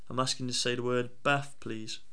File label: Northern bath